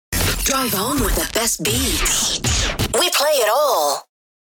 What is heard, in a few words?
Female radio voice dj sweeper, "drive home"